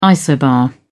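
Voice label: "isobar", British English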